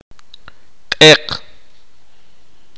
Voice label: "negro", K'iche'

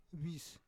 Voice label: "vis", Roon

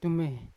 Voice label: "tume" year, Yawa

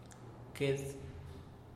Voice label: "qued", Teotitlan

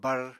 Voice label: "barr", Apatani

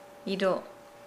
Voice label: Nukuoro; know

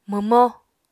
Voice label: "mwmó", Apatani

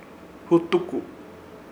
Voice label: "Hutuko" taban mbutu, Ishir Chamacoco

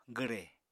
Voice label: "gwre", Apatani

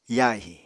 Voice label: "yahi", Apatani

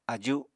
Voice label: "aju", Apatani

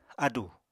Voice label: "ádù", Apatani

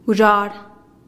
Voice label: "guzhar" spoon, teotitlan

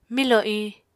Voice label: "milò iñ", Apatani

